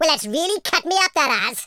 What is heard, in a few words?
bummer, Welsh; speech